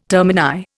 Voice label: "termini", Indian

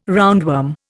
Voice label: "roundworm", Indian

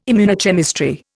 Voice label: "immunochemistry", Indian

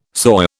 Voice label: "soy", British

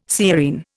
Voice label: "sciurine", Indian

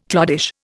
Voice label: "cloddish", Indian

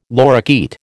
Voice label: "lorikeet", American

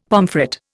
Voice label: "pomfret", Indian; Dictionary

